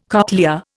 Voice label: "cochlea", Indian